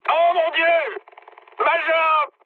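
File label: French damaged pilot mood med; War Thunder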